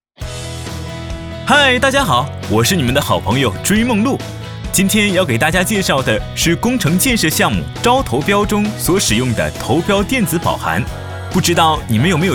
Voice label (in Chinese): 男 MG动画 电子保函